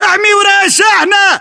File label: order cover pickup, battle chatter, english